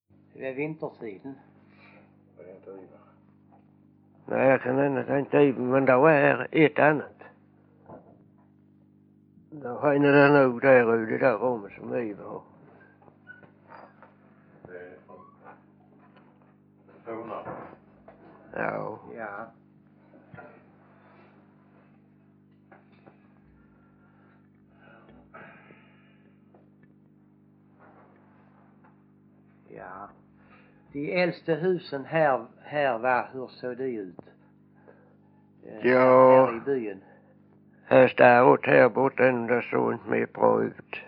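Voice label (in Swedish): Vånga Skåne, dialekter; Folkminnen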